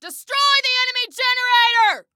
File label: Attack Generator, Female